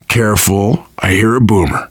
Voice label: heard boomer, survivor voice, biker; Left 4 Dead 2